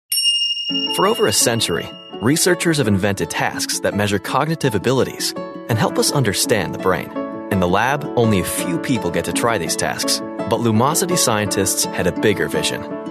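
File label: English US male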